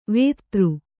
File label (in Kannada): "ವೇದ", Kannada